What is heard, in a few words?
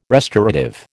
"restorative", American